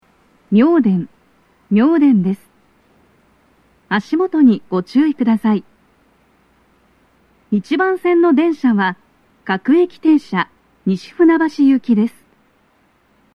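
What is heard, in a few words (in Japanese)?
arrival announcement, 到着放送 女声; 1番線 西船橋・東葉勝田台・津田沼方面; 放送は「各駅停車 西船橋行」です。; 足元注意喚起放送が付帯されており; 足元注意喚起放送が付帯されており、粘りが必要です。; 収録者:こたけ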